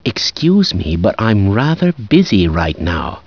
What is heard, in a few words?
disagree, scientist; XDM